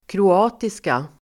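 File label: "kroatiska", Swedish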